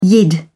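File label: "yid", British English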